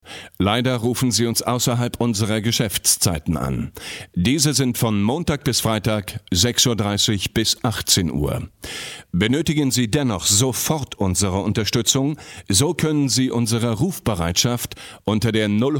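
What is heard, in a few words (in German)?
deutsch, Telefonansagen männlich; Sprecher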